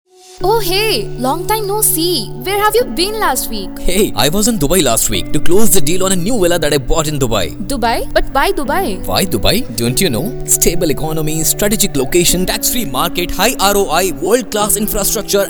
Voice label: Radio Ad